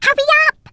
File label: "come on then", Brooklyn; Worms Armageddon